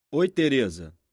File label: conversation